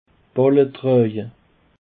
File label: "trage", Alsace